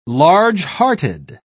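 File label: "large-hearted", British English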